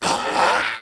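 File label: Lizard voice delay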